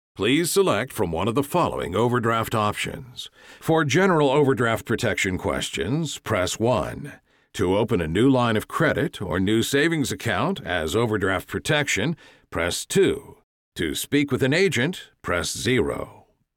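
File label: Telephony Confident Authoritative Precise Deeper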